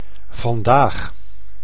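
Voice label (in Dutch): "vandaag", Dutch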